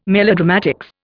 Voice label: "melodramatics", Indian